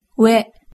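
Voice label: "we", Japanese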